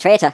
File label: "traitor", Irish; Worms Armageddon